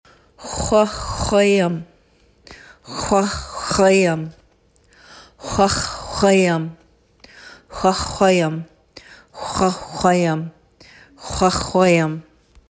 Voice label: Oral story telling; indigenous; KPU